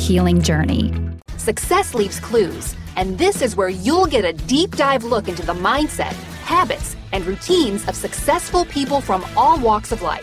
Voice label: Podcast Intros